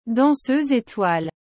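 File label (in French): "danseuse étoile", French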